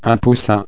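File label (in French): "poussin", French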